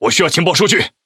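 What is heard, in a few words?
Speech Quick Commands Need Scouting, Chinese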